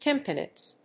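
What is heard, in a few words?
"Tympanites", English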